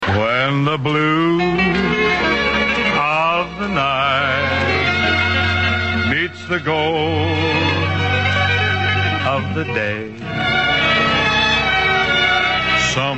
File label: on tape